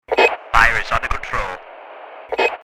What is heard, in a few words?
Firefighter radio chatter fire is under control